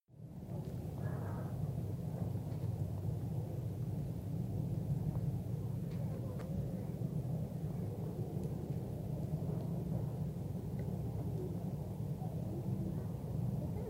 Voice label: Essex accent